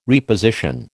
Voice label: "reposition", American

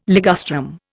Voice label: "ligustrum", Indian

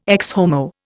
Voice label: "Ecce Homo", Indian

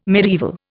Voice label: "mediaeval", Indian

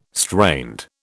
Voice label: "strained", British

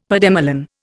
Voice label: "pademelon", Indian; Dictionary

